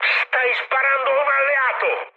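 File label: Italian player friendly fire mood med, speech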